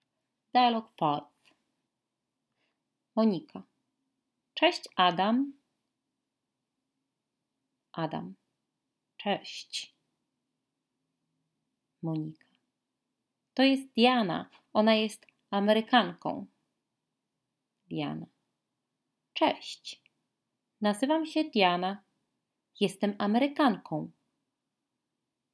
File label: dialogue, Polish language